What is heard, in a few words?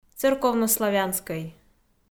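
"церковнославянскӧй", Komi, female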